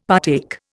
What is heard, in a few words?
"partake", Indian; Dictionary